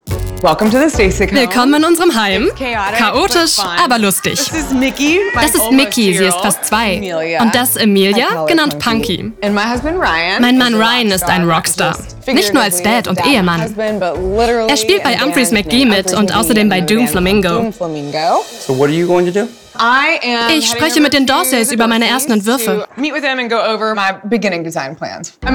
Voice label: Voice Over energetisch, lässig

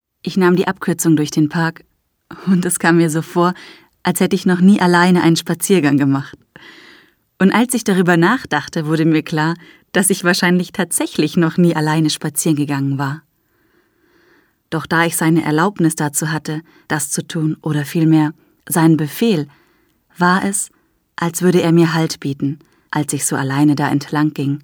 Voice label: Erzählung

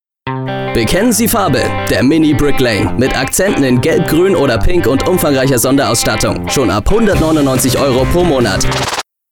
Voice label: Radio-Spot Mini

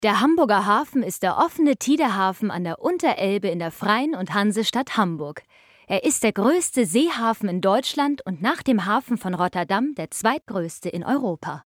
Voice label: Hamburg doku

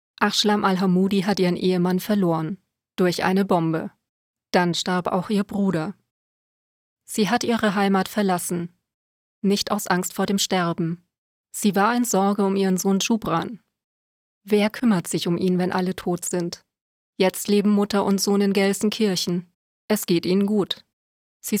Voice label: Voice Over Syrien, Deutsche Sprecherin, helle, klare Stimme

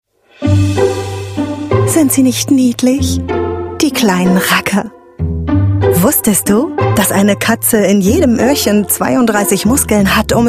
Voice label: Werbung Katzenfutter

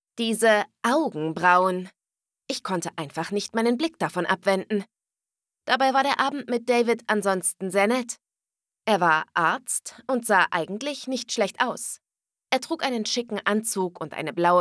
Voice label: Hörbuch (Liebesroman)

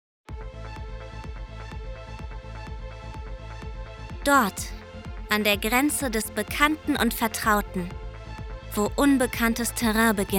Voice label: Industriefilm

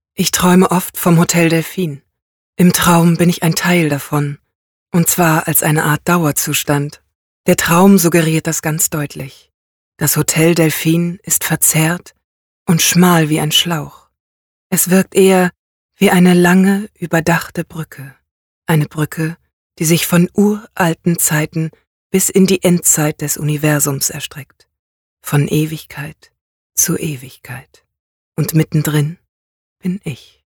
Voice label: Erzählung

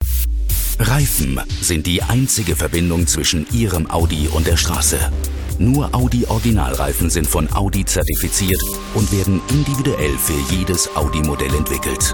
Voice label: Werbefilm; Audi

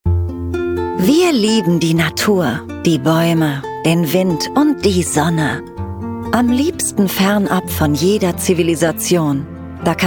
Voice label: Werbung Solarstrom

